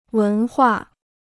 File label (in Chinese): "文化", Chinese